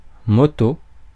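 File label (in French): "moto", French; phonemes; Mots francais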